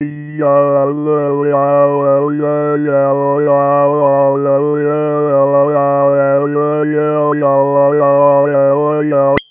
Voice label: resynth Speech